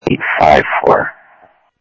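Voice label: Sheriff East County, scanner audio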